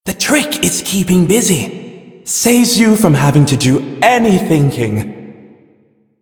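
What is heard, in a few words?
Male Brisk en, ambient dialog; Warframe; Duviri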